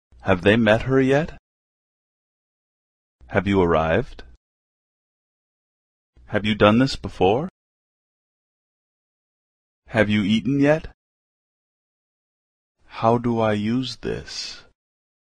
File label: English Sentences